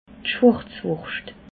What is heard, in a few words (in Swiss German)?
"schwarzwurscht", alsace